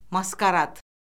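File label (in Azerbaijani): "maskarad", Azerbaijani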